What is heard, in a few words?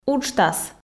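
"уджтас", Komi, female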